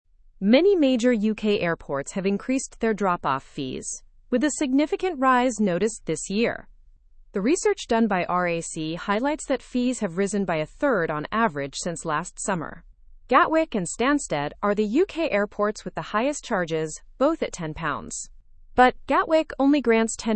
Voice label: learn english through news upper intermediate fast; price rise in uk airport drop off fees